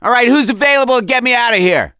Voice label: call for rescue, survivor voice; gambler